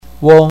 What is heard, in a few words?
"weng1", Chinese pinyin